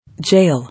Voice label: "Jail", English